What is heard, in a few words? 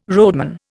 "roadman", Indian